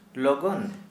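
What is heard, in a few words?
Santali male quickly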